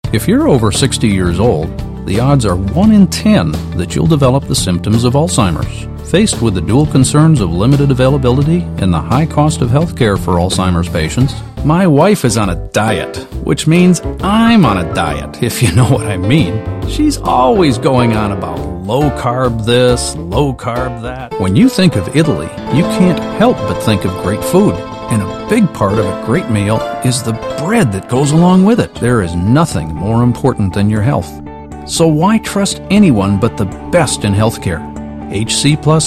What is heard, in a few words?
Commercials